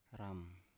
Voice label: "ram", Biak